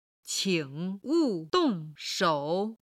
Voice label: "qing3 wu4 dong4 shou3", Chinese